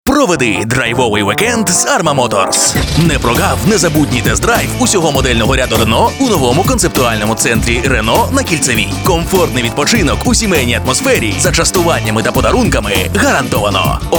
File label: ukraine male